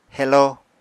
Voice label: "heló", apatani

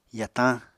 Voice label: "yatañ", Apatani

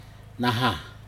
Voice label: "nahah", plant for wrapping fish, Aneityum

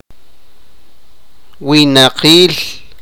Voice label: sipakapense; people

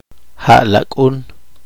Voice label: poqomchi

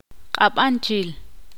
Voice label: ixil; estar borracho

